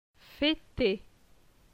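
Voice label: "Feter", French; phonetique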